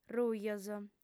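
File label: "rujos", Sardinian female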